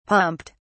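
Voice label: "pumped", British English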